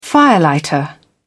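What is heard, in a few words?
"firelighter", British English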